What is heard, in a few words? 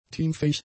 "teamfähig", German; adjectives